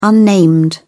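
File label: "unnamed", British English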